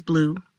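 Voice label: "Blue", pronunciation; colors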